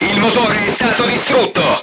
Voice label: voice message engine destroyed, crew tanks italian